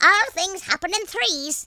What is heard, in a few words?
oi nutter, Irish; speech